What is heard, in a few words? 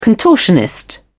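"contortionist", British English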